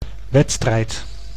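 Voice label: "wedstrijd", Dutch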